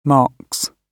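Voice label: "marks", British English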